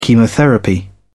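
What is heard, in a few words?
"chemotherapy", British English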